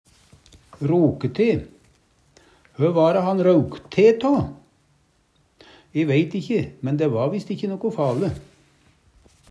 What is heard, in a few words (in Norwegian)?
"roke te", Numedalsmål